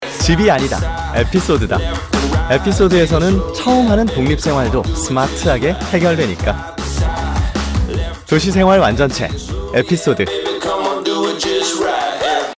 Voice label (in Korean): 광고 샘플 밝은 세련된; SK에피소드